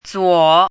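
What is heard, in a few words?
"zuo3", Mandarin pinyin syllable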